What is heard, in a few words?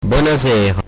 good evening corsican